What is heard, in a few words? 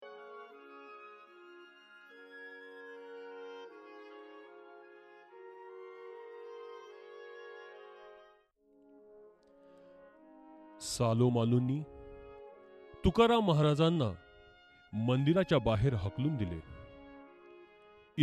Narration, Marathi; Sant Tukaram; Gopals Studio